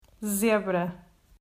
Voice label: "Zebra", Portuguese